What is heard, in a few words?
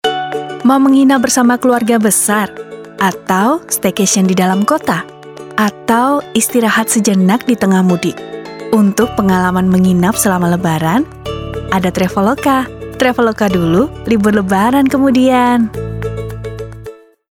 INDONESIA CASUAL